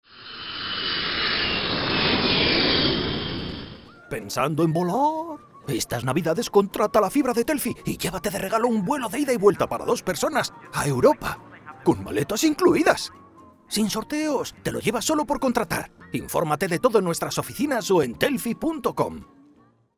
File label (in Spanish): PUBLICIDAD RADIO CAST; TELFY